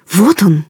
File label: search, woman; Stalker Anomaly